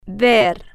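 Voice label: "ver", Spanish, female, slow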